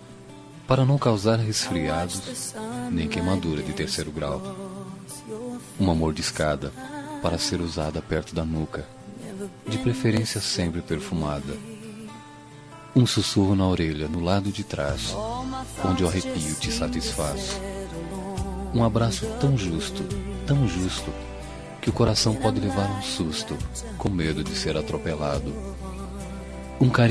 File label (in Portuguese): Romântica Kit Ternura